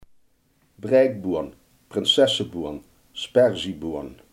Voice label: "sperzieboon", Limburgs

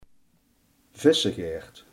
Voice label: "vishengel", limburgse

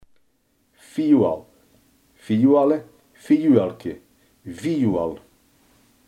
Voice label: "viool", limburgse

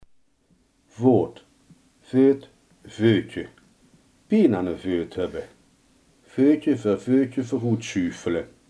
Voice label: "voet", limburgse

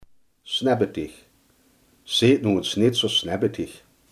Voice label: "snibbig", Limburgs

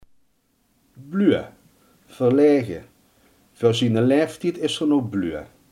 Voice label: "verlegen", limburgse

